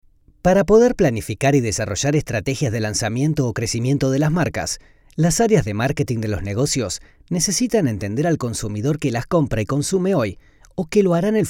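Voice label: Latin American Spanish Argentina accent M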